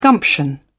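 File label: "gumption", British English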